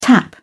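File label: "tap", British English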